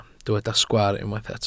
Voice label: "sgwaar", Welsh

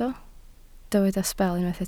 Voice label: "sbel", Welsh

